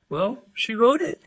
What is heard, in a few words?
Voice Acting, es